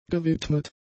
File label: "gewidmet", German; adjectives